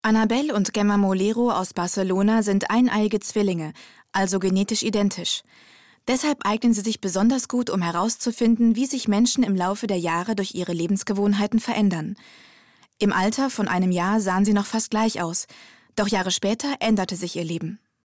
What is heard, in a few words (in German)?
kommentar